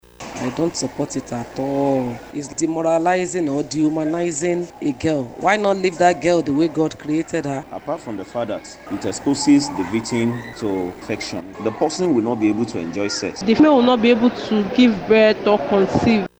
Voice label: Vox Pop